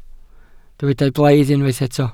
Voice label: "blaidd", Welsh

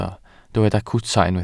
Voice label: "cwta", Welsh

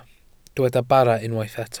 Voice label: "bara", Welsh

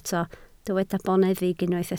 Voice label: "boneddig", Welsh

